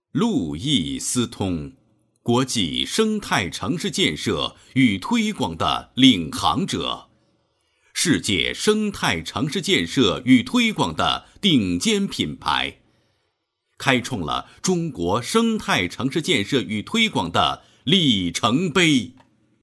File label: boy